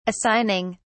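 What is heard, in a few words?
"assigning", British English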